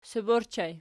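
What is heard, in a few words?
sob ergative plural, Archi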